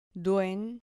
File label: "duen", Spanish syllable